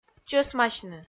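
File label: "чӧсмасьны", Komi, female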